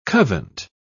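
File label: "covent", British English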